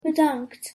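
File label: "bedankt", Dutch; język niderlandzki podstawowe zwroty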